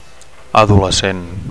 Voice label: "adolescent", Catalan; (m / f) adolescent; teenager

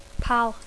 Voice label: "pal", English

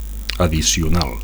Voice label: "addicional", Catalan; additional; added; extra

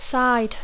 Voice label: "side", English

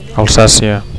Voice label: "Alsacia", Catalan; Alsace

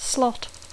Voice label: "slot", English

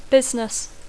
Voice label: "business", English

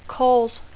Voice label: "cause", English

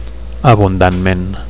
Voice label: "abundantment", Catalan

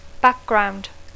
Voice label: "background", English

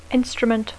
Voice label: "instrument", English

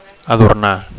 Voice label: "adornar", Catalan

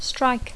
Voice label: "strike", English; vaga; verb tr conj (struck/struck); colpejar

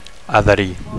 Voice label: "adherir", Catalan; to stick; to adhere (formal)

